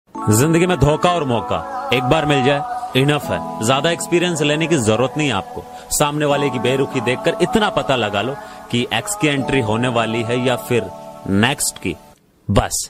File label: Sad Dialogue Ringtone